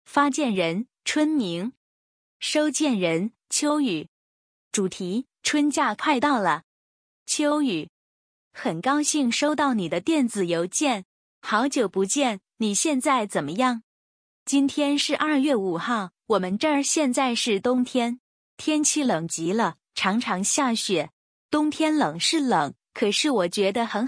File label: narration